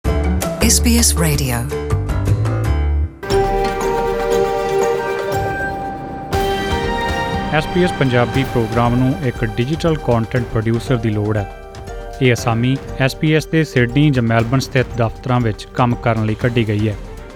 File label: punjabi; SBS Radio’s Punjabi program is looking for Digital Content Producer